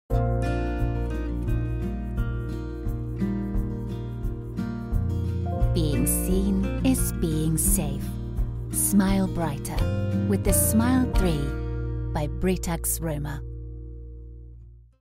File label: Online Spot englisch; Britax Romer STAY SAFE